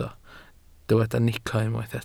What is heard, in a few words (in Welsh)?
"nico", Welsh